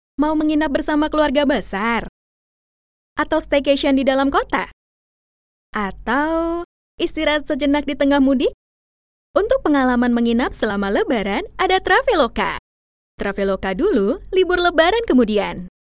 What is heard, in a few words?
INDONESIA CASUAL